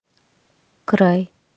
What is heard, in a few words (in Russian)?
Край, русский язык